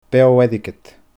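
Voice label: "beoo airgead", Scottish Gaelic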